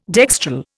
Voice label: "dextral", Indian